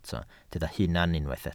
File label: "hunan", Welsh